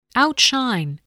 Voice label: "outshine", English voice